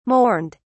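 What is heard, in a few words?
"mourned", British English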